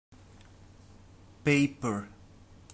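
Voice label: "paper", British English; PictureDictionary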